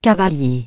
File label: "knight", French